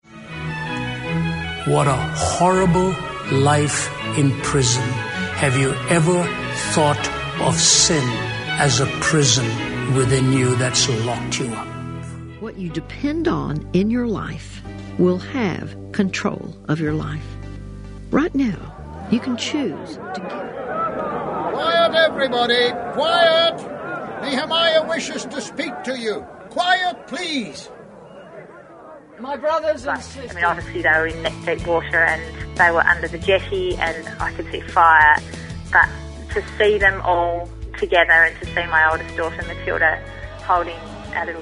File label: English